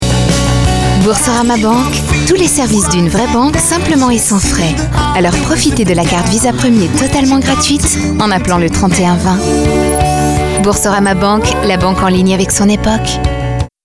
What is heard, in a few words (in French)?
PUB TV; boursorama; Visa